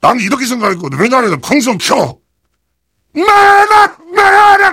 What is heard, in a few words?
heavy battlecry